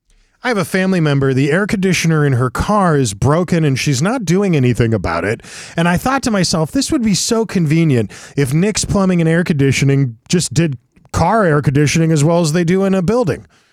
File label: RADIO AD; nicks plumbing radio ads